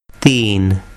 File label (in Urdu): "theen", Urdu